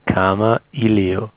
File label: "kamailio", male; kamailio pronounciation